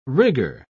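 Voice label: "rigger", British English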